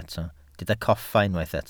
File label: "coffa", Welsh